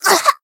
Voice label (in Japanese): Voice damaged, jp